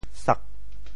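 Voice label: "sak4", Teochew; 潮州; 菩萨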